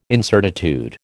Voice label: "incertitude", American